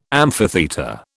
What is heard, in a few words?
"amphitheatre", British